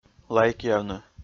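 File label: "лайкъявны", Komi, male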